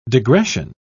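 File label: "degression", British English, dictionary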